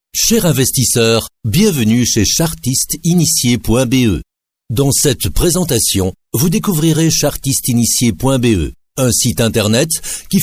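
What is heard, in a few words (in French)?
Belgian French, male, narration; voice demos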